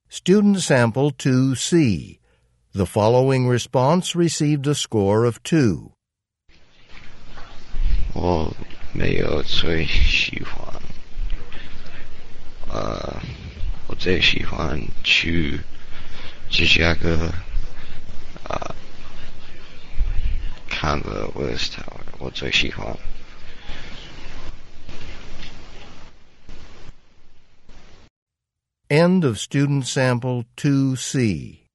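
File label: chinese conversation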